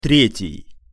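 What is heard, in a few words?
"tretij", Russian pronunciation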